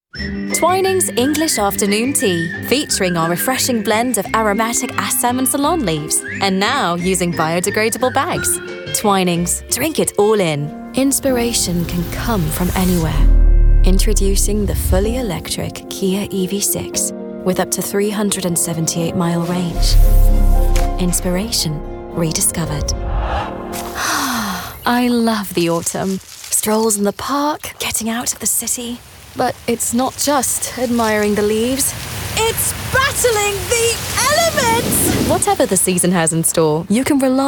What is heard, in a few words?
British Commercial